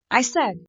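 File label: "i said", English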